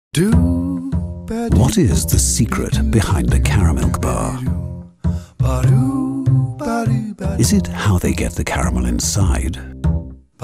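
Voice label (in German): UK English Werbung Sanft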